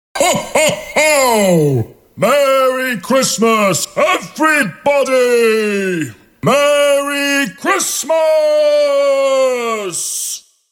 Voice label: Merry Santa, Santa Claus wishing everybody a 'Merry Christmas'.; Holidays